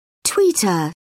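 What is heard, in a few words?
"tweeter", English, British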